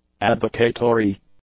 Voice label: "advocatory", English; adjectives